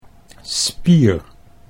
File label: "spier", Dutch